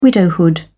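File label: "widowhood", British English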